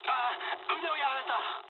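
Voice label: Japanese damaged pilot mood low; War Thunder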